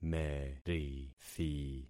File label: "merithi", concat syllables; Affixing experiment